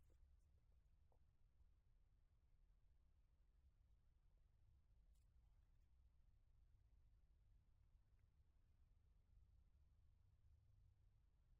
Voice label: TLM 102 Raw Studio